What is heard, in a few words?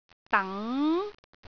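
"dang2", Cantonese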